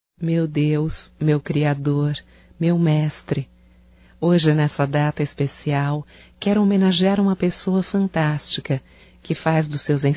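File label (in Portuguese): female, Telemensagens Aniversarios; Telemensagens 2017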